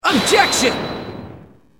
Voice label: Objection, EN; Klavier